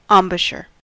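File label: "embouchure", US English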